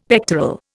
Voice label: "pectoral", Indian; Dictionary